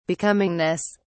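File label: "becomingness", British English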